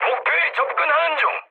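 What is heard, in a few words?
Korean near waypoint mood high, speech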